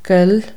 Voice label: boiling, Irish, Consonants